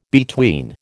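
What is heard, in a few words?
"between", American English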